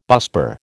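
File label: "busbar", American English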